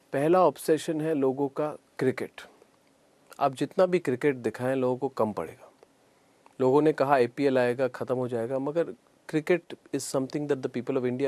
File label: voice sample in Hindi; Arnab Goswami